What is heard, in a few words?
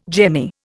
"jemmy", Indian